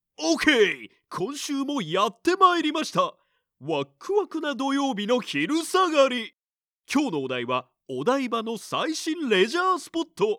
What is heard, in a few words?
male